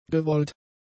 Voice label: "gewollt", German; adjectives